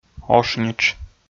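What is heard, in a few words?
"ошнитш", Komi, male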